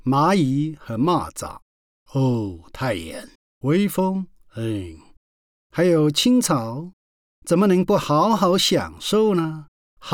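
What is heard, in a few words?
chinese male narration; voice demos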